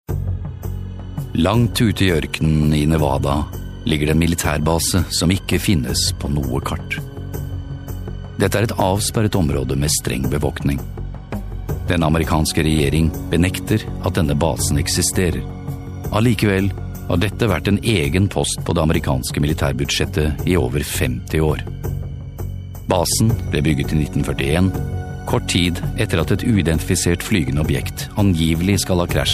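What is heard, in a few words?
norwegian male narration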